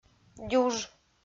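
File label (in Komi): "дюж", Komi, female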